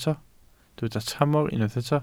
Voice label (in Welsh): "tramor", Welsh